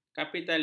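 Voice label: "kapitalis", Malay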